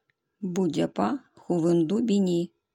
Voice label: ОРОЧСКИЙ Мы живем рядом с тайгой; Бу дяппа хувэнду бини.

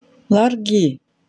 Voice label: "Хорошо", ульчский; Ларги; транскрипция [ларги]

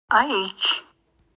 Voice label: "Хорошо", эвенский; Аич; транскрипция [аич]

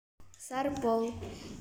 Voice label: Сарпол нанайский

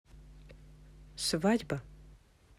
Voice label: свадьба русский

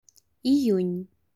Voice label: июнь, русский

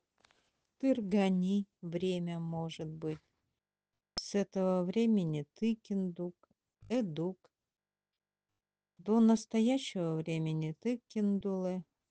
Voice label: время эвенкийский

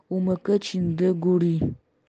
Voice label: Нанайский

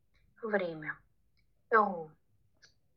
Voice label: время ульчский; Эру или эрун; транскрипция [эру или эрун]

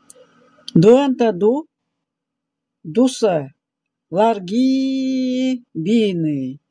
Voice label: УЛЬЧСКИЙ Тигру в тайге хорошо

